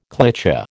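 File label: "cliché", American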